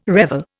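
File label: "revel", Indian English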